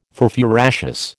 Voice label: "furfuraceous", American